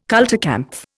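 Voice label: "kulturkampf", Indian English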